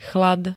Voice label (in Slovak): "chlad", Slovenské